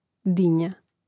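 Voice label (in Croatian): "dinja", Hrvatski; mreznik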